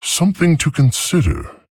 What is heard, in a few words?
Male Tauren Thinking